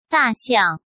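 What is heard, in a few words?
"大象", Mandarin Chinese; chinese flashcards; hsk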